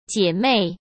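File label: "姐妹", Mandarin Chinese; chinese-flashcards; hsk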